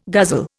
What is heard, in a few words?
"guzzle", Indian